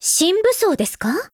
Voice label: upgrade, JP; Springfield